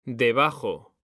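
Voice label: "DEBAJO", Spanish; mekanta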